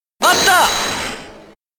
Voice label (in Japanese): "matta", Japanese; Edgeworth